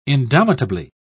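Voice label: "indomitably", British English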